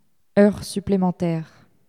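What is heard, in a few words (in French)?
"heure supplementaire", French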